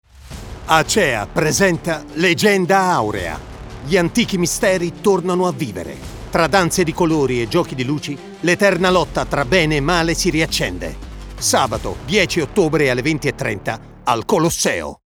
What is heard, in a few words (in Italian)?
radio; acea